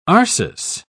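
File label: "arsis", British English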